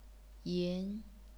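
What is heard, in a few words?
"yin", chinese pinyin, second tone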